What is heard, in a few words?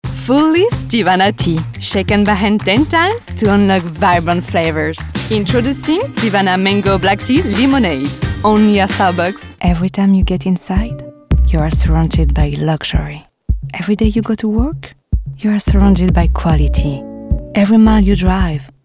English VO